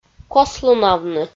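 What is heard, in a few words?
"кослунавны", Komi, female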